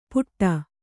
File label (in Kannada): "ಪುಟ್ಟ", kannada